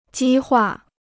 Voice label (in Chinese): "激化", Chinese